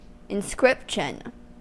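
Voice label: "inscription", US English; pronunciations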